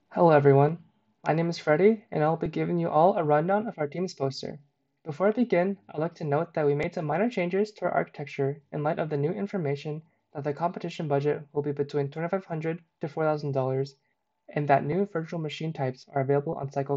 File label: Voiceover; ClusterComp 2020